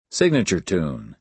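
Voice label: "signature tune", American English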